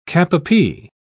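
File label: "cap-a-pie", British English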